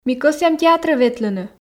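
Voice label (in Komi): ми кӧсъям театрӧ ветлыны, Komi, female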